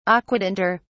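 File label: "aquatinter", British English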